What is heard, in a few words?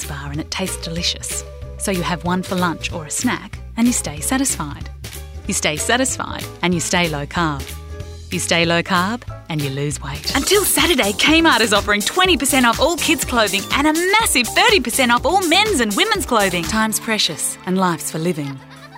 Voice Demo